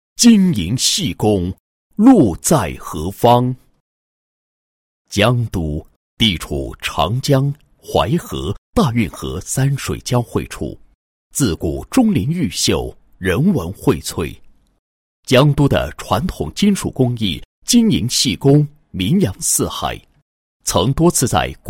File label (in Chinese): 男 人物 简介; 金银细工大师